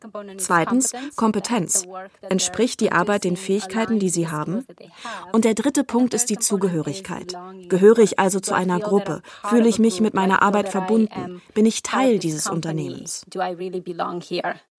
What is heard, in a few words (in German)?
Voice Over lebendig aufzaehlend; Feature Glueck